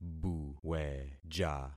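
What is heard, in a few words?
"buweja", concatenated syllables; Affixing experiment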